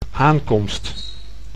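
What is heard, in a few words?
"aankomst", Dutch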